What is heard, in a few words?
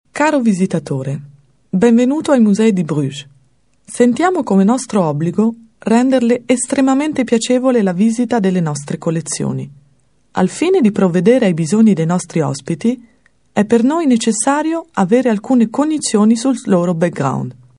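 Italian, female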